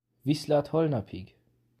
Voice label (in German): Ungarisch Auf Wiedersehen und bis morgen